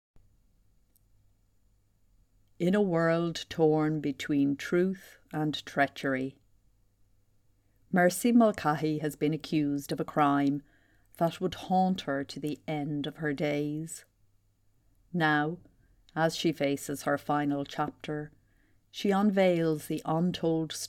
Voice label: video VO